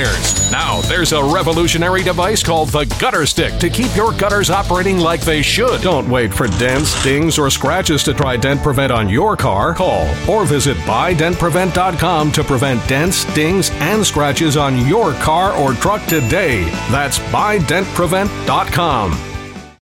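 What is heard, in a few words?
DRTV Infomercial voice-over; DRTV Infomercial VO Demo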